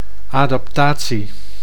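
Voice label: "adaptatie", Dutch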